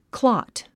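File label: "clot", English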